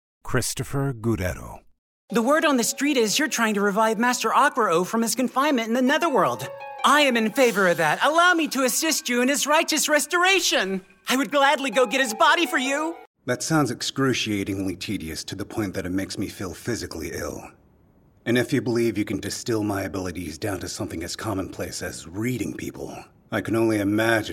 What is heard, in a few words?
Character